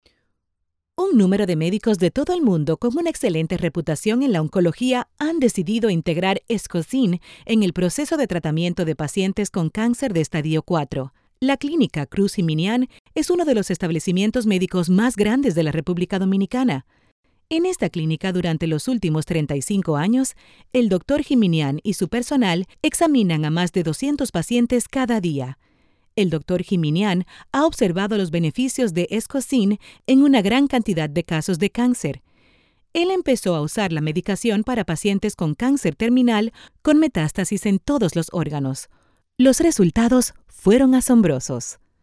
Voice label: spanish latin american medical narration, voice actors